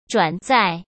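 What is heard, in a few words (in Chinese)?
"转载", Mandarin Chinese; chinese flashcards; hsk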